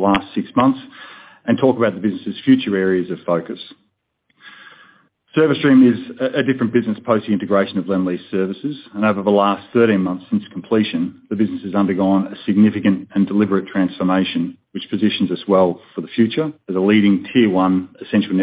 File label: Half Year Results Conference Call; Service Stream; FY23